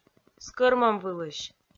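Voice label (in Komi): "скӧрмӧмвылысь", Komi-Zyrian, female; kpv audio dict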